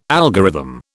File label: "algorithm", British